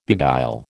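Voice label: "beguile", American English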